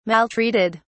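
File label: "maltreated", English, uk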